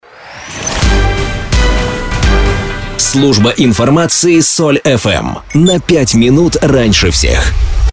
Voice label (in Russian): ИНТРО Новости; Новости - Открывашка